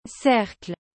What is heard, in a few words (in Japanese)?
"cercle", フランス語; 男性名詞の単語